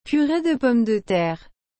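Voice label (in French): "purée de pomme de terre", French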